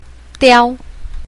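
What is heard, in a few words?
"diao1", Mandarin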